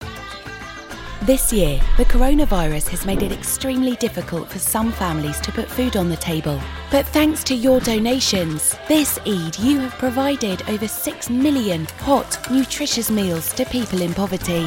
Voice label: english british animation

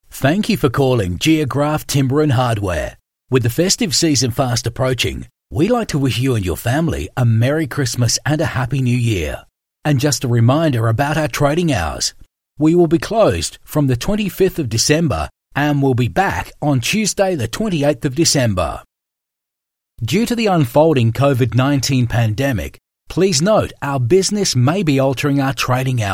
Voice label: english australian ivr

